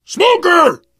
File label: warn smoker, survivor voice biker; Left 4 Dead 2